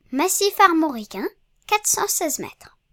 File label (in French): armoricain